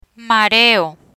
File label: "mareo", Spanish; medical vocabulary; learn spanish